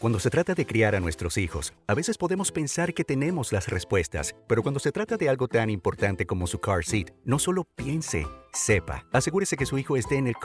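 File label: Latin American Spanish Commercial; GoLocalise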